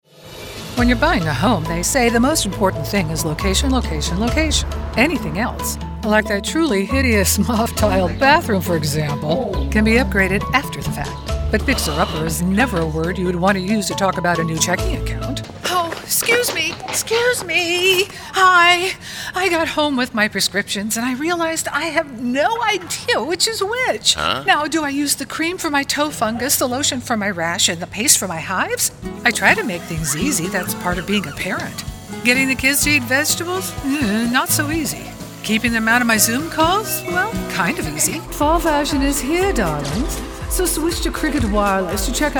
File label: Commercial